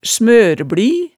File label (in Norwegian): "smørbli", hemsedalsmaalet